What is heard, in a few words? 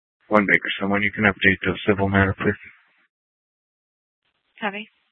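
Mesa Police Department Superstition Dispatch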